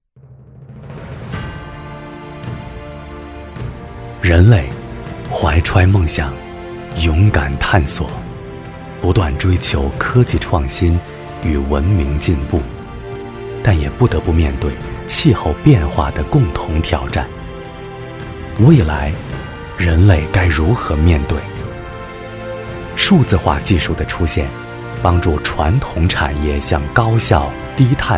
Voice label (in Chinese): 男 中文 宣传片; 液冷